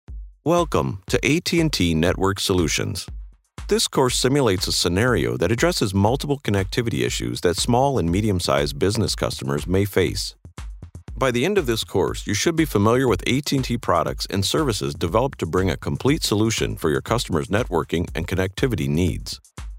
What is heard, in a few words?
training elearner